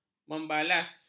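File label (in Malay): "membalas", Malay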